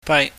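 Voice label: "fai", Cantonese, mid tone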